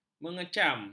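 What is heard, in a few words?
"mengecam", Malay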